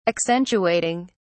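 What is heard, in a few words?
"accentuating", English, British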